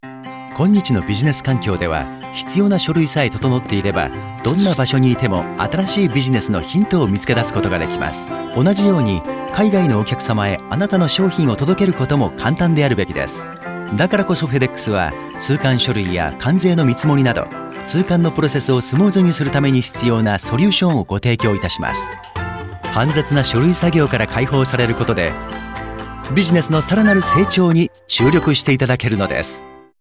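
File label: Japanese, male, commercial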